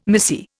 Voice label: "missy", Indian